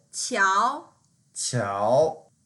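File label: "qiao2", Mandarin Chinese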